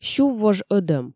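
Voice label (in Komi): сюввож ӧдӧм, Komi, female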